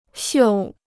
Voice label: "绣", Chinese

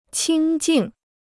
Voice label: "清静", Chinese

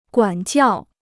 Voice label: "管教", Chinese

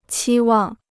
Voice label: "期望", Chinese

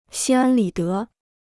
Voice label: "心安理得", Chinese

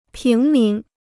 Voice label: "平民", Chinese

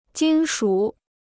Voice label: "金属", Chinese

